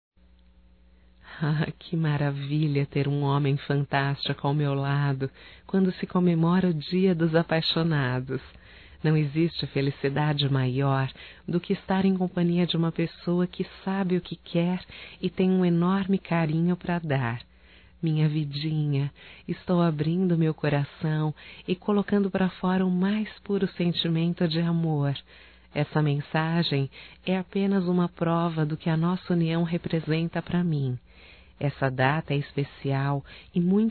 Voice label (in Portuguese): DIA DOS NAMORADOS, Só Voz, fem; Telemensagens; HOMENAGENS